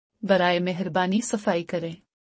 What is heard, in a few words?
Clean up, please. Urdu; Time and Routine